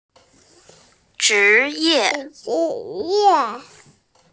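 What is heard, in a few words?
"zhi ye", Mandarin Chinese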